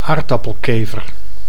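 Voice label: "aardappelkever", Dutch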